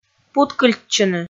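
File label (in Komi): "путкыльтчыны", Komi, female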